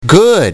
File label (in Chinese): 男生 good